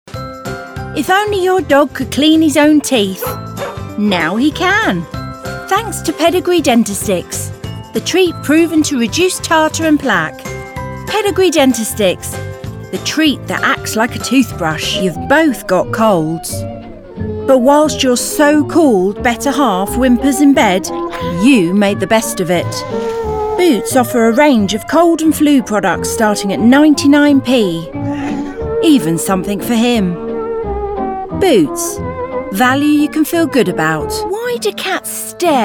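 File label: Commercial, female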